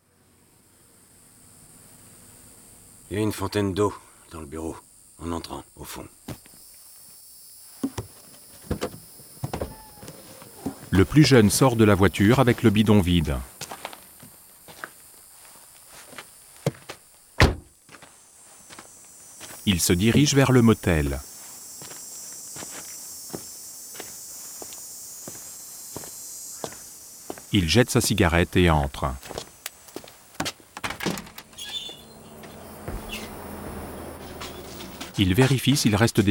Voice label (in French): voix off audiodesc; history of violence